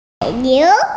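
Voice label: "dairy cow", Mandarin, hearing-impaired; nai3niu2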